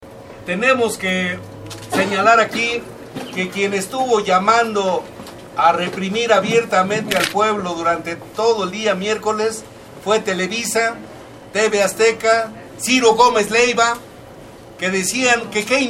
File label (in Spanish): hombre; presas del penal de santiaguito; mano firme